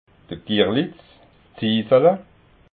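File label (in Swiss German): "girlitz", Alsatian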